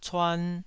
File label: "cuan2", Taiwanese syllable